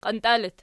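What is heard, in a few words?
"qiantia" locative, Archi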